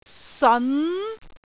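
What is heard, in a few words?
"seon2", Cantonese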